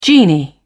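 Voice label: "genie", British English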